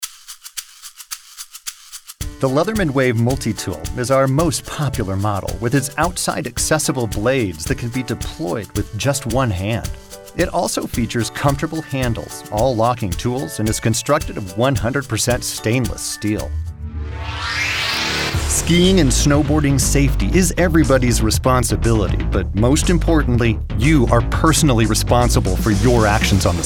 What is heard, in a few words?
narration